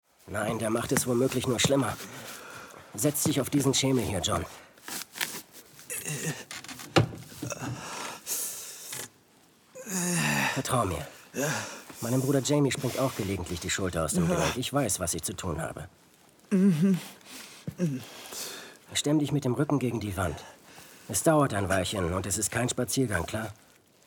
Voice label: Cedric of Harley (erwachsen); Hörspiel (Hüter der Rose) - Cedric of Harley (erwachsen)

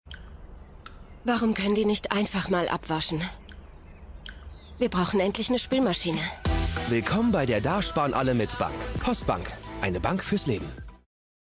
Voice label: Werbung; Postbank

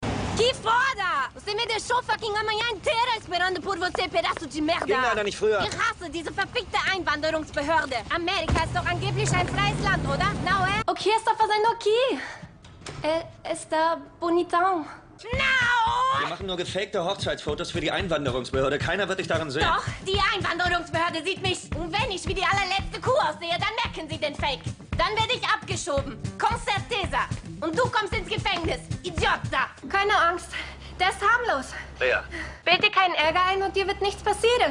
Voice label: Synchronbeispiel portugiesisch + Akzent

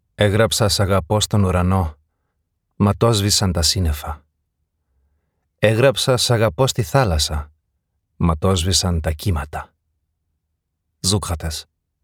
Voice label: griechisch

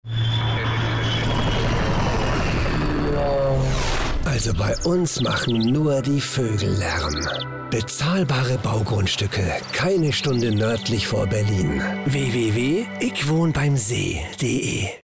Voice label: werbung ick wohn beim see FLUGLAERM; Tonfabrik Berlin - Rundfunkwerbespot rbb

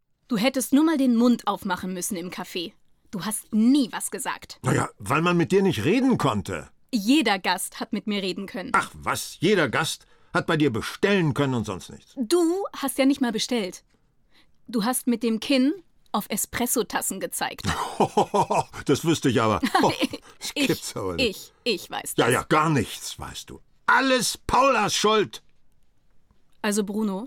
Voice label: Hoerspiel; mit Christian Rode

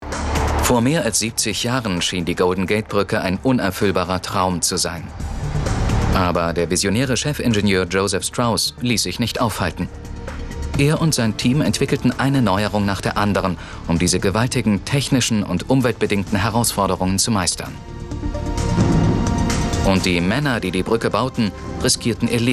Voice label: Doku; Golden Gate

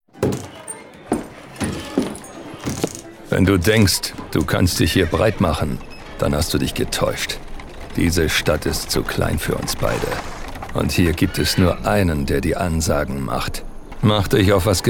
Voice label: DE Cool verrueckt; Club Cowboy

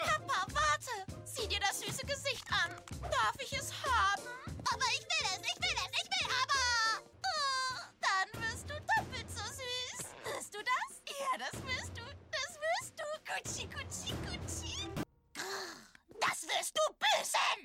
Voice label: Animation kindlich; changiert / kindlich

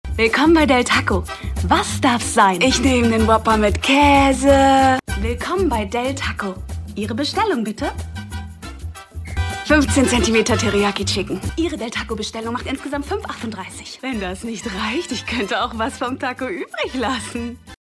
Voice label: Rolle verkäuferin und Kundin; Netflix; Disjointed

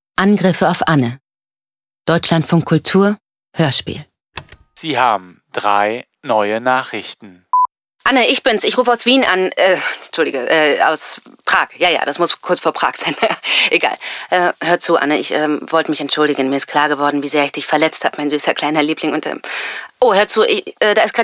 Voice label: Hoerspiel

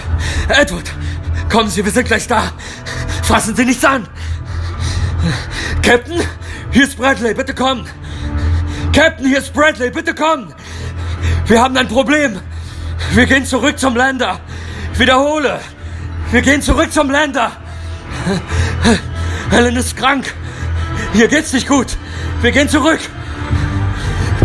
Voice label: laut

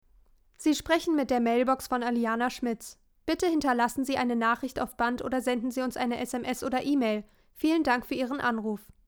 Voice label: Anrufbeantworter

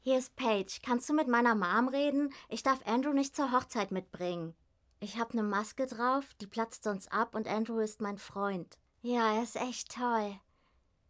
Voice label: Synchron

